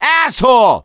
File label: reaction negative, voice; gambler